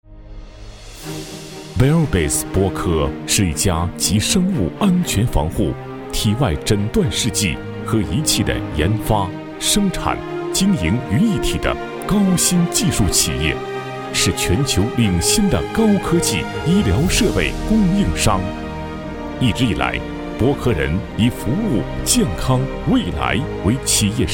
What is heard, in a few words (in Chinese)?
男 医疗设备供应商（大气浑厚激情）; 博科